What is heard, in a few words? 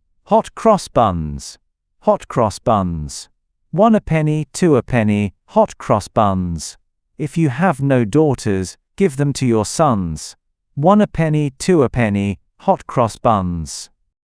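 Hot Cross Buns, male British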